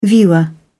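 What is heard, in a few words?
"viewer", British English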